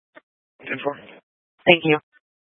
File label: Sheriffs Office, scanner